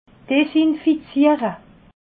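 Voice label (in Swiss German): "desinfiziere", Alsatian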